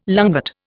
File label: "lungwort", Indian